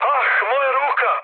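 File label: Serbian damaged pilot mood med, speech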